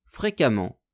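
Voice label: "frequemment", French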